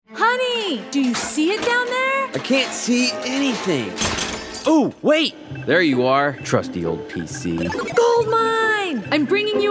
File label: computer recycle, radio psa; 2011 psa